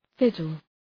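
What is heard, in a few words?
"fiddle", English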